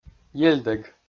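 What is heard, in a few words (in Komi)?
"ельдӧг", Komi, male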